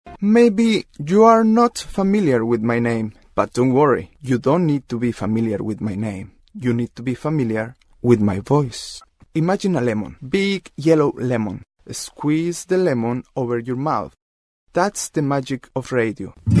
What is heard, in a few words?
Mexican Spanish English